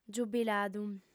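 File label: "giubiladu", female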